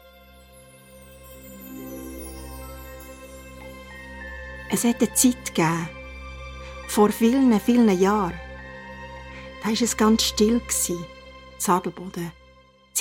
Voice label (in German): hoerprobe erzaehlerin